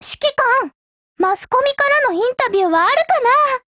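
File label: hello, voice, Japanese; GF Alarm; C93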